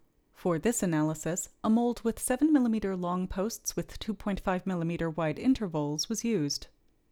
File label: Voiceover